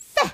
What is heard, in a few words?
"feh", Yiddish